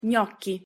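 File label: "gnocchi", Italian pronunciation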